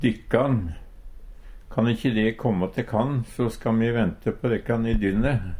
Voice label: "dikkan", Numedalsmål

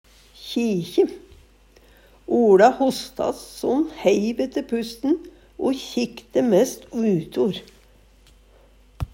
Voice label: kjikje, Numedalsmål; Verb

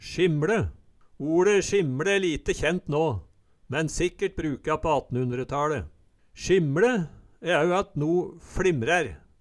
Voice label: sjimLe, Numedalsmål; Kjelda skriv skimle.

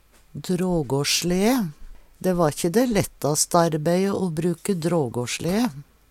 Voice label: "dragasLee", Numedalsmål; slede til å frakte folk i

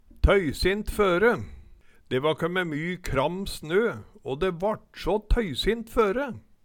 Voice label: tøysint føre, Numedalsmål; De va kømme my kram snø å de vart så tøysint føre

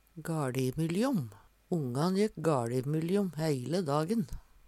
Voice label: "gaLimylljom", Numedalsmål; Ongan jekk gaLimylljom heile dagen.; frå gard til gard

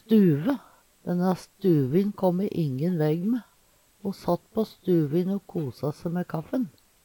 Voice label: stuve, Numedalsmål

